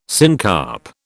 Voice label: "syncarp", British